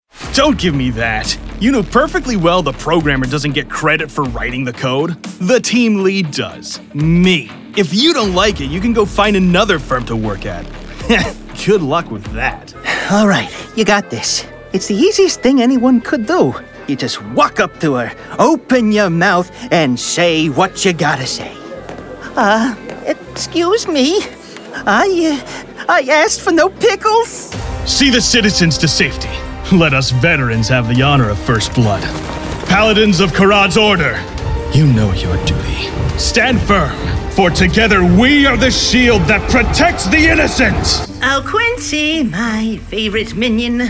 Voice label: vo character